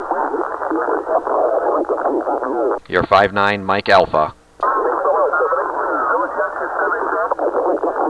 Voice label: brazil 160m ssb; 2009 arrl ssb